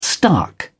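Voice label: "stark", British English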